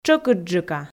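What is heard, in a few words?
"тшӧкыдджыка", Komi, female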